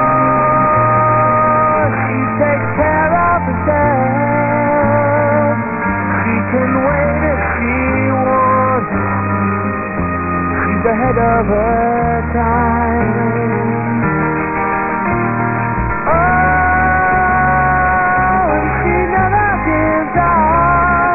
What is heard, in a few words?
woman